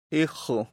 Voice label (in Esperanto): "ehxo", esperanto; leciono1